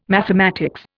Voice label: "mathematics", Indian